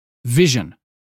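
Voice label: "vision", British phonetics; 英语音标发音（剑桥版）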